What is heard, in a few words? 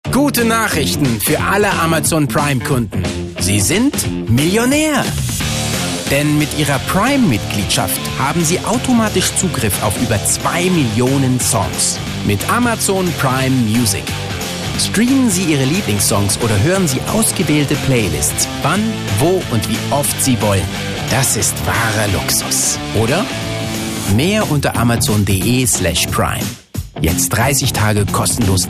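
female, dynamic; Amazon; Dynamisch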